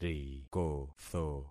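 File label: "rikotho", concatenated syllables; Affixing experiment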